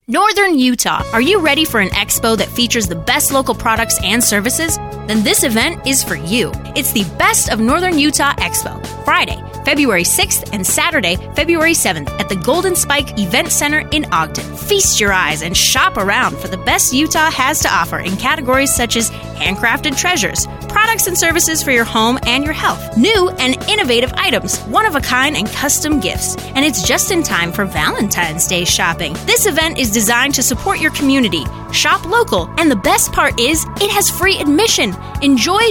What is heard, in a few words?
radio commercial; northern utah expo